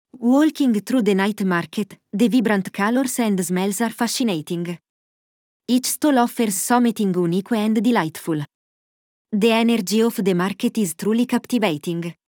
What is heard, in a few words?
Italian, english accents; english accents challenge